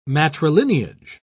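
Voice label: "matrilineage", British English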